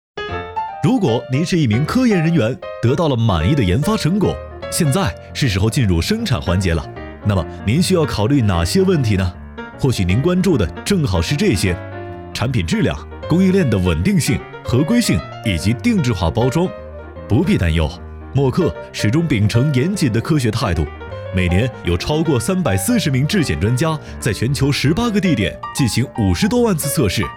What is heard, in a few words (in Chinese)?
男 专题 年轻活力; 飞碟说风格